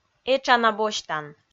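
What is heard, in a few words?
"этша на босьтан", Komi, female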